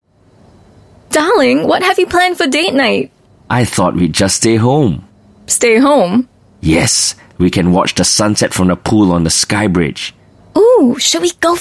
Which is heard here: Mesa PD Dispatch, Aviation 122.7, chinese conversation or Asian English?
Asian English